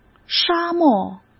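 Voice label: "沙漠", Mandarin Chinese; chinese-flashcards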